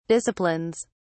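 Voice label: "disciplines", English, British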